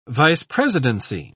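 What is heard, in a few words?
"vice-presidency", British English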